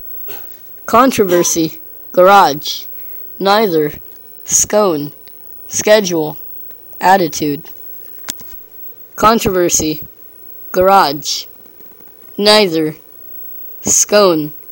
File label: san francisco us male